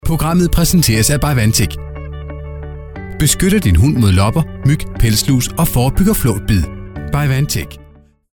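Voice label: Danish, male, e-learning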